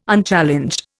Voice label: "Unchallenged", Indian